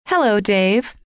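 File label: "hello", female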